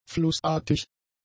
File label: "flussartig", German; adjectives